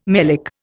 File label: "melic", Indian